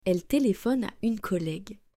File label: "elle téléphone à une collègue", French